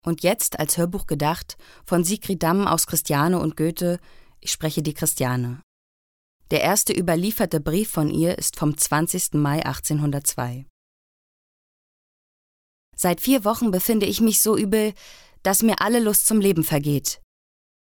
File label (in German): hoerbuch